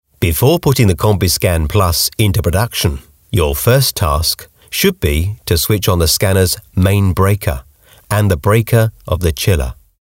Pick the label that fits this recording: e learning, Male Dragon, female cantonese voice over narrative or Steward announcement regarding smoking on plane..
e learning